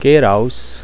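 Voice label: "raus", German; Dog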